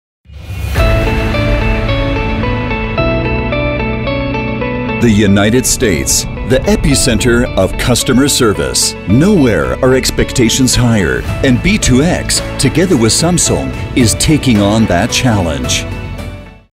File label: eng; b2x; samsung